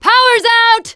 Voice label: teen girl

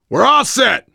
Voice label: world farmhouse npc, voice, biker; Left 4 Dead 2; left4dead2 dlc3; survivor

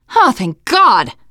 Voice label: safe spot ahead reaction, teengirl, voice; player; survivor

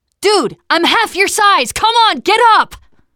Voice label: revive friend loud, teen girl; player; survivor; voice

